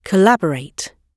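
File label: "collaborate", British English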